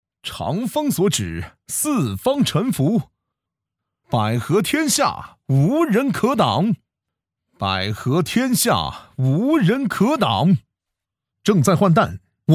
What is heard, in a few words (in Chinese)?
普通话合集; 鲸读全球